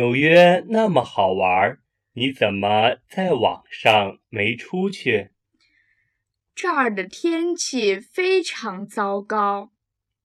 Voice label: lesson dialogue slow